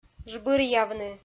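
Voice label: "жбыръявны", Komi, female; audio dict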